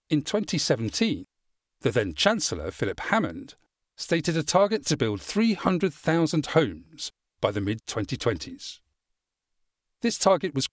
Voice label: corporate; New Home build; Corporate reads